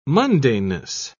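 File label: "mundaneness", British English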